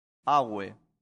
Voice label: "ave", latin; santillana